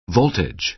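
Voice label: "voltage", American English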